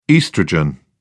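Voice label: "oestrogen", English, British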